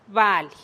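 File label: "bali", Teotitlán del Valle Zapotec; estrella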